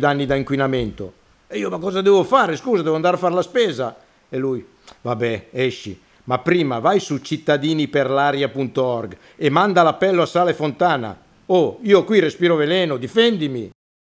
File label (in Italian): spot polmone; Giovanni Storti